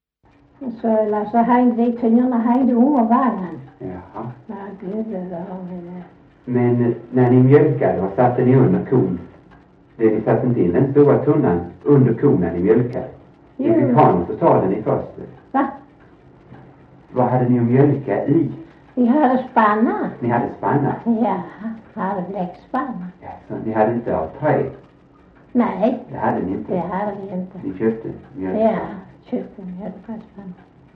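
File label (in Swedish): Sövde Skåne, Svenska sagor, dialekter; Folkminnen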